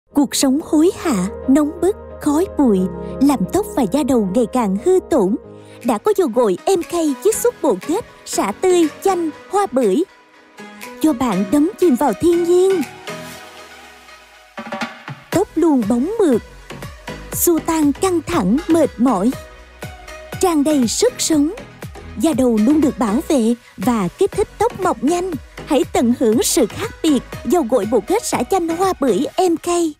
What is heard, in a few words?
Commercial